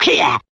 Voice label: "yeah" cartoon, voice; ooh ahh

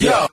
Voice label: "yo", group of men; ooh ahh

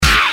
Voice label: ow male

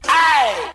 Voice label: "ay", voice

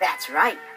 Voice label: "that's right" female

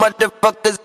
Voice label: "motherfuckers", expletives

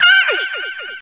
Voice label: "ay", voice

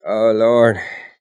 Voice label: "oh lord", expletives, g-rated swears

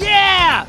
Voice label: "yeah", rocker guy; ooh ahh

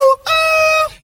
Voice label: "oh ooh", Voice; ooh ahh

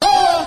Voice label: "ho" cartoon

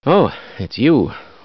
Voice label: "oh it's you", male; Voice; introductions

